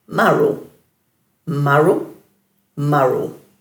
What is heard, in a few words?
"maru", Dhurga